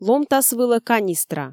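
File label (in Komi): "ломтас вылӧ канистра", Komi, female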